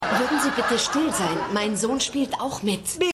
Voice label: Mutter; Die Nanny

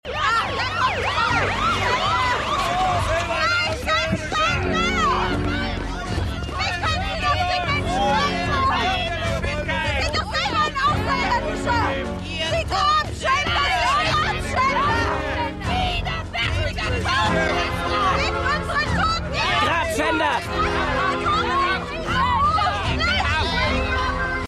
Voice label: Reporterin Reporter; Outer Limits

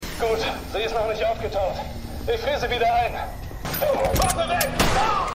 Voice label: Mann Schutzanzug, Stimmproben; The 100

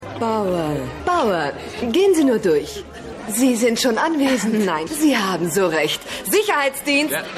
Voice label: Empfangsdame

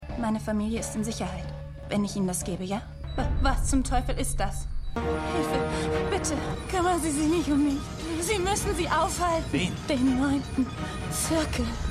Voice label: Wissenschaftlerin; Stimmproben; Arrow

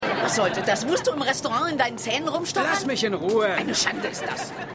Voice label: Frau Mann, Stimmproben; Seinfeld

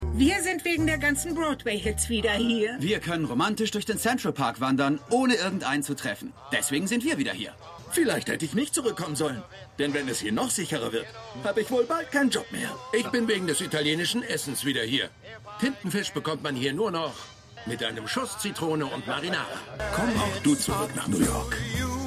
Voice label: Frau Mann Mann Mann Sprecher; Stimmproben; Watchmen

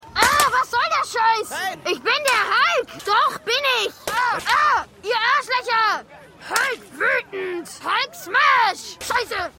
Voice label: Junge; Hulk

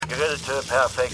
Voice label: Grill Werbesprecher, Stimmproben; For All Mankind

